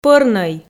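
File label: "пӧрнӧй", Komi, female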